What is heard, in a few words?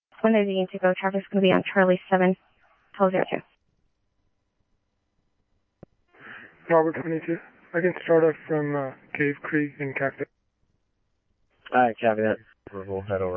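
scanner, Phoenix Police Department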